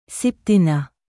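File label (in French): "septennat", French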